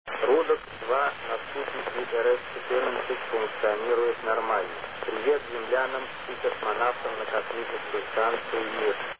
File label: russian